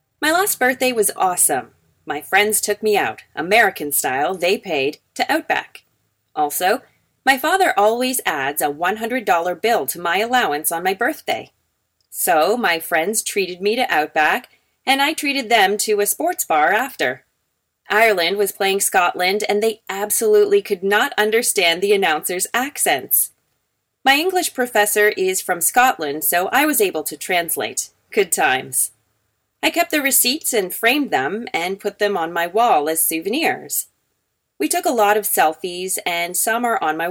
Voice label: female level